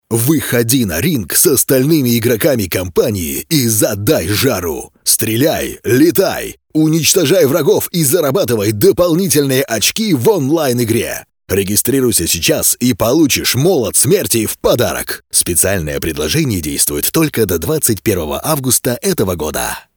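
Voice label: Russian male Rage, vo examples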